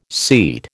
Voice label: "seat", American